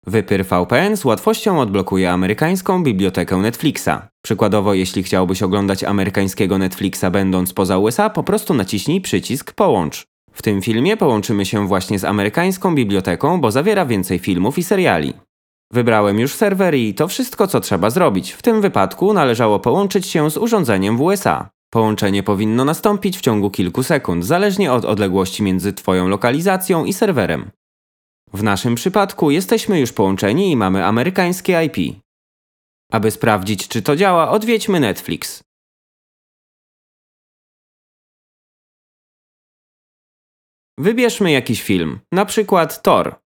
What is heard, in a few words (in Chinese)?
波兰语男 干音